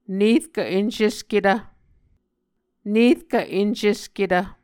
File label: "nerkv encesketv", Muscogee